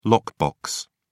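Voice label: "lockbox", English, British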